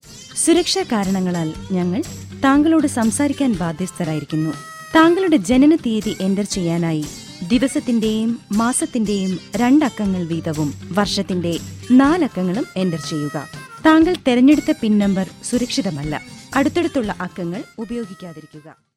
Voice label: Malayalam